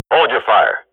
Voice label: radio bot under fire friendly, Counter-Strike swat; Global Offensive; player vo